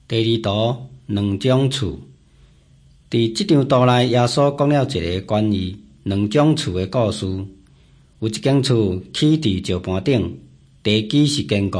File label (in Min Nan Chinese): taiwanese, drama; hokkien; taiwanese min nan todays taiwanese version nt drama; jesus teacher and healer